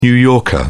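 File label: "new yorker", British English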